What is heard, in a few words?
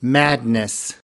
"madness", us